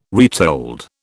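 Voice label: "retold", British English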